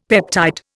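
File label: "peptide", Indian English; Dictionary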